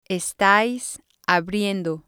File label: "estáis abriendo", Spanish